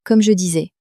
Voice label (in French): comme je disais, common french words